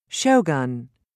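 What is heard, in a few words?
"shogun", British English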